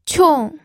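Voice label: "qiong", Mandarin Chinese, fourth tone; pinyin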